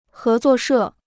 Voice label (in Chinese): "合作社", Chinese